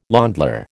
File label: "lÄndler", American